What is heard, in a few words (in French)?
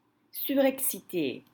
"Surexcités", French